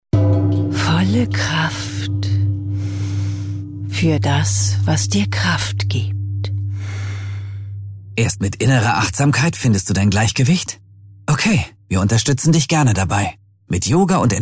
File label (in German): Funk Szene, Commercial (Werbung), German; Funkspot DAK Yoga